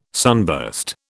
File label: "sunburst", British